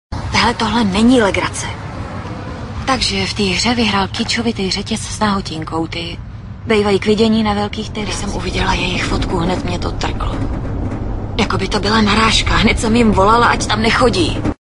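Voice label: female Czech drama mix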